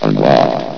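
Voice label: "unlocked", male synthetic announcer voice; source engine; hl1; video games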